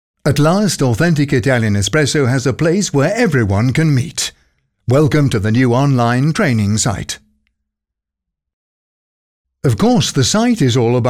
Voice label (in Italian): lingua inglese uomo